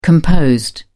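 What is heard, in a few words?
"composed", English, British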